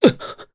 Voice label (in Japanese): Damage, jp; Nicx; kings raid